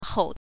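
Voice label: "hou", Mandarin pinyin, neutral tone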